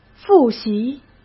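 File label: "复习", Mandarin Chinese; hsk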